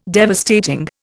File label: "devastating", Indian